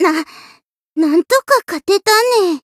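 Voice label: Voice win barely, Japanese; lingli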